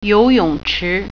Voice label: "swimming pool", Mandarin

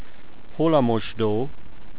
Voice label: bathroom, hungarian

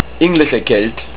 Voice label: "English", Estonian

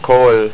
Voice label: School, estonian

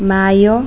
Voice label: maio, interlingua

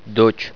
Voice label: "daughter", russian

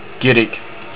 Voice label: "Church", Estonian

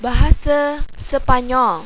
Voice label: spanish, malaysian

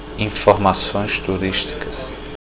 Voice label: tourist info, portuguese

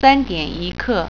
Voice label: quarter 3, Mandarin

